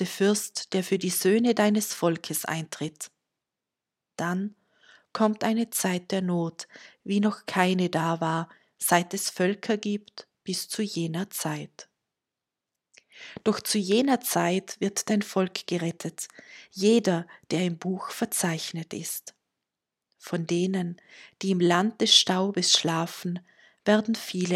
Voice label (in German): Lesung; die wuerde der glaubenden